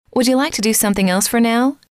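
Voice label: would you like to do something else for now, female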